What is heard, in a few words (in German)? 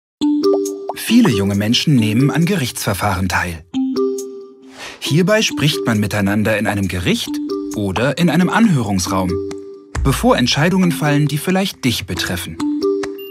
erklearfilm europaeische union